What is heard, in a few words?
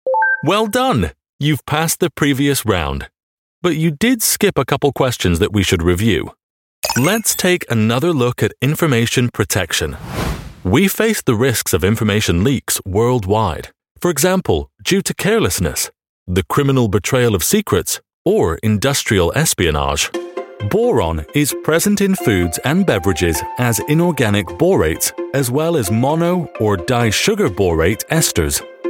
midatlantic voice elearning